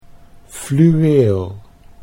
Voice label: "fluweel", Dutch

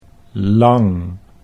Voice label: "lang", Dutch

